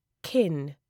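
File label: "kin", British English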